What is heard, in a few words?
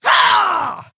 Female Orc Attack